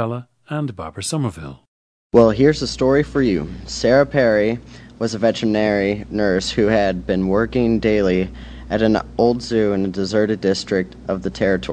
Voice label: missouri, dialects